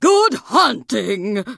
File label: Tuskarr Female Farewell